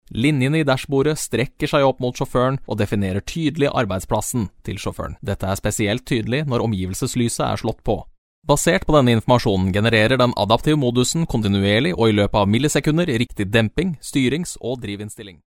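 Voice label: Norwegian, male, explainer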